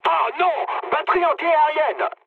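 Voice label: French damaged aa mood med; War Thunder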